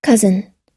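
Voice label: "cousin", American English; cousin /kʌ́zn; いとこ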